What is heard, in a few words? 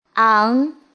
"ang", Chinese; 중국어 성모 연습